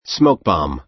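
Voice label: "smoke bomb", American English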